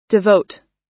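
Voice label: "devote", American English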